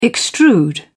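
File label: "extrude", British English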